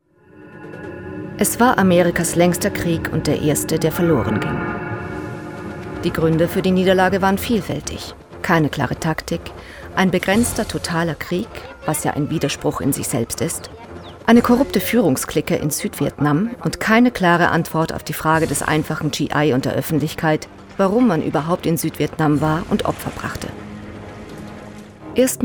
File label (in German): Doku Vietnam